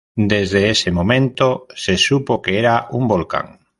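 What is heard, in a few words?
"ese", Spanish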